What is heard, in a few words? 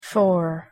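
"for", English pronunciation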